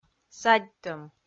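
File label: "садьтӧм", Komi, female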